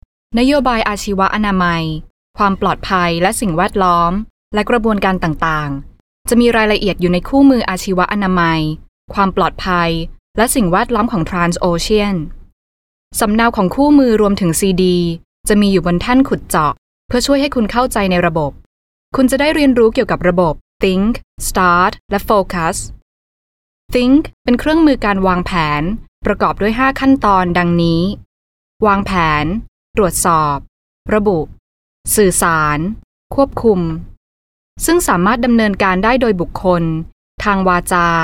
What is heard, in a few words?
Thai Narration F